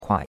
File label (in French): "kuai3", chinois; Cours-de-Chinois